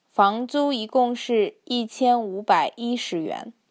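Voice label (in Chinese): "fangzu yigong shi yiqian wubai yishi yuan", Mandarin Chinese; 房租一共是一千五百一十元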